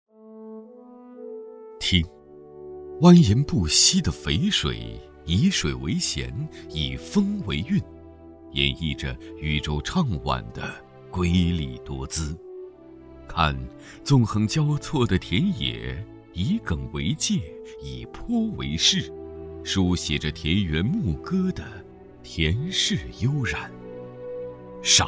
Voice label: 男, 宣传片; 马店

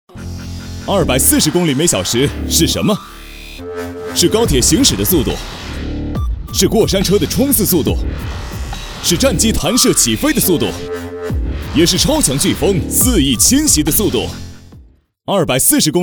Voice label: 男 汽车广告 年轻激情; 欧尚Z6

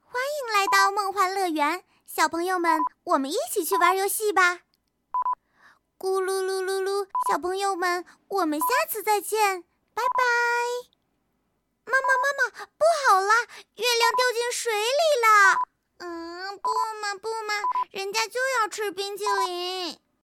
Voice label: 女 游戏 萝莉音; 梦幻乐园

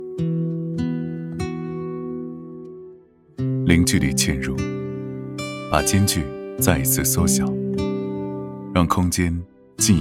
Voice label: 男 深情感人; 卡萨帝

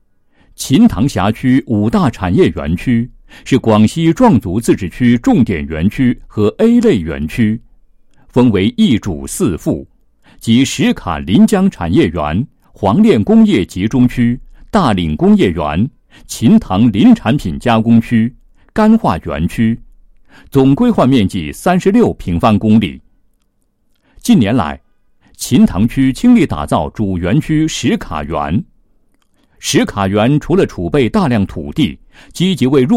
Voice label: 男 地产 覃塘园区标房

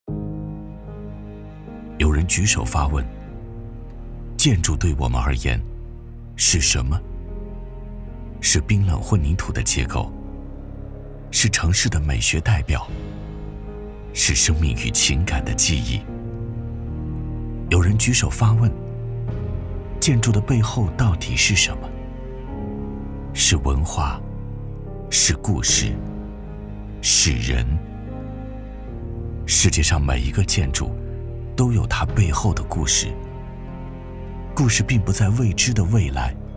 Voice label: 男 专题 地产 走心讲述; 阅世界; 建未来

